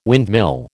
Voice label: "windmill", American

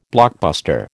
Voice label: "blockbuster", American

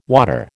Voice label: "water", American

